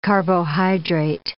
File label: "carbohydrate", English